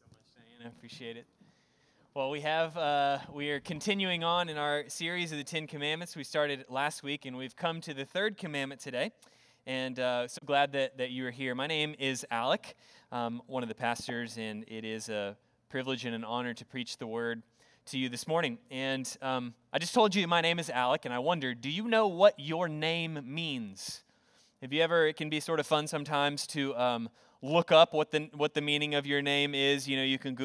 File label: english Sermon